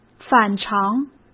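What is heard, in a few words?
"反常", Mandarin; chinese-flashcards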